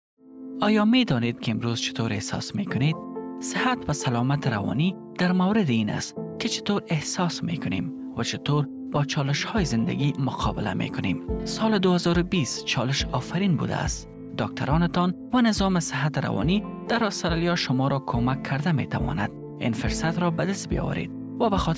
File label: Mental Health Awareness - Dari